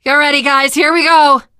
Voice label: world hospital generic, teen girl; voice; source engine; left 4 dead 2; survivor

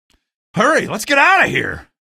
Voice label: reach train, male; video games; npc; source engine; episodic; episode 1

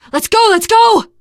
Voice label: hurry up, teen girl; voice; source engine; left 4 dead 2; survivor

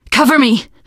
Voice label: cover me, teengirl; source engine; left 4 dead 2; survivor

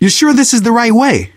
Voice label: harbor blocked, survivor voice; left4dead; manager; Source engine

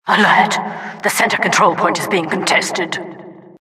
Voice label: announcer alert center control being contested

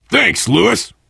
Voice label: thanks, player survivor voice biker

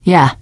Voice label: yes, teengirl; voice; source engine; left 4 dead 2; survivor

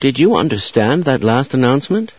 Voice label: announcer, scientist; source engine; hl1; video games

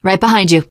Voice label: im with you, teengirl; survivor voice

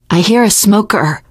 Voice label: heard smoker, teengirl; survivor voice

